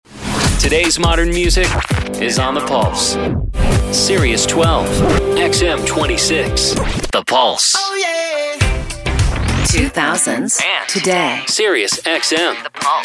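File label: radio hot adult contemporary; radio imaging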